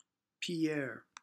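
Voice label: "Pierre" English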